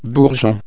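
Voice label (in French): "bourgeon", French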